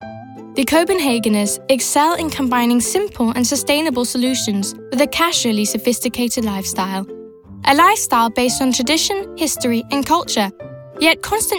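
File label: English UK, female, commercial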